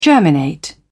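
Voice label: "germinate", British English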